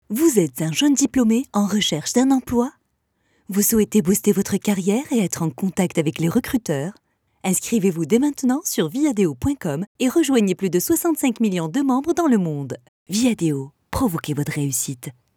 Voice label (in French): Radio Voix